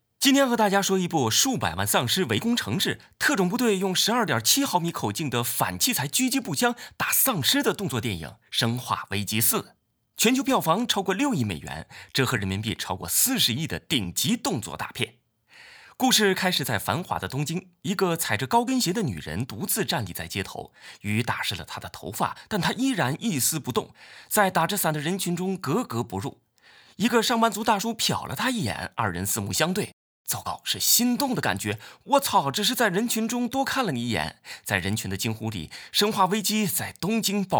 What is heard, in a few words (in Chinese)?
电影解说